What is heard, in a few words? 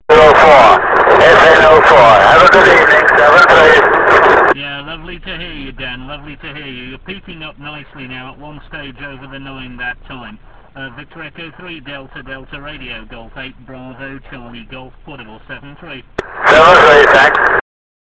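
amateur radio contact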